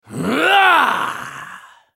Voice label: spellcast effort, en US; Trundle